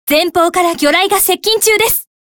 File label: Speech Alarms Torpedo Ahead; Azuma; Azur Lane